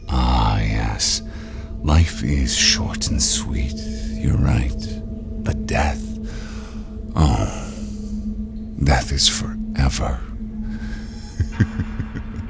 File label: animation men interactive; voices men